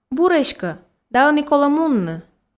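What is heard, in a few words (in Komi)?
бур эськӧ да ӧні колӧ мунны, Komi, female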